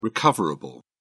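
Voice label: "recoverable", British English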